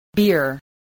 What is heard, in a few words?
"beer", English